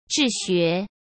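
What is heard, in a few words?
"治学", Mandarin Chinese; hsk; chinese-flashcards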